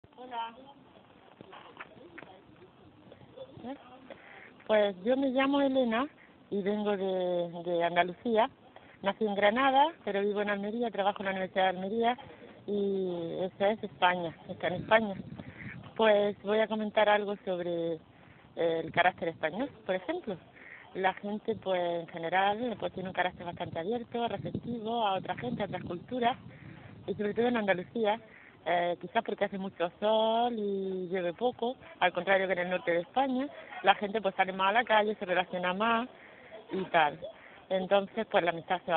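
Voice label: Spain Almeria Female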